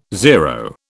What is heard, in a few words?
"zero", British